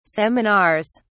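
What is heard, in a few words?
"seminars", English, British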